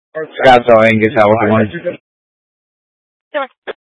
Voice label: Scottsdale Police, Simulcast